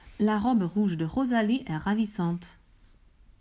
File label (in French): virelangue